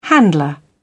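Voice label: "handler", British English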